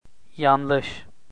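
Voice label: "yanlis", Turkish, male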